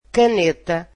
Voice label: "pen", Portuguese